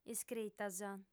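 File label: "iscritas", Sardinian, female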